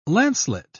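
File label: "lancelet", British English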